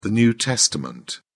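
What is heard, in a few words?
"new testament", British English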